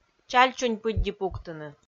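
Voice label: "чаль чунь пыдди пуктыны", Komi, female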